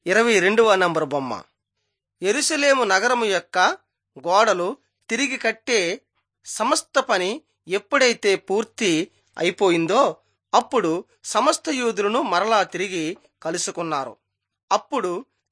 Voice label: telugu bangladesh; on trial for god

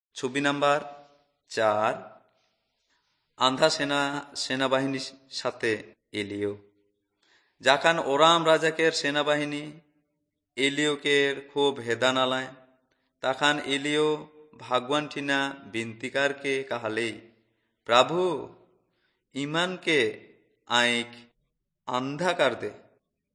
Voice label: urao, drama; kurukh fmp nt drama; on trial for god

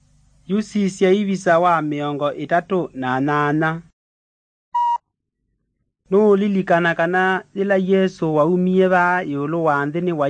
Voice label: kikamba drama; good news; bible

